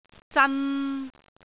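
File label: "zan3", Cantonese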